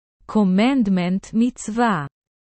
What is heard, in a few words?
hebrew commandment mitzvah